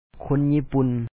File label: "ຄົນຢີ່ປຸ່ນ", Lao; kho2n n)i#ipu#n Japanese